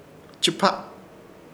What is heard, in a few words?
"Chɨpah", Ishir Chamacoco; hacer trabajar